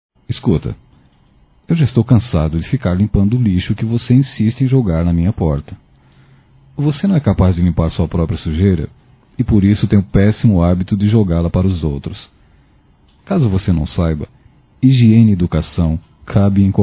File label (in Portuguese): Vizinho porco Masculino, Broncas; Telemensagens 2017